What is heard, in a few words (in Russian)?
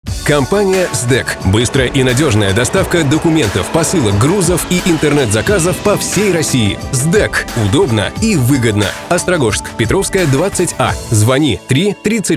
reklama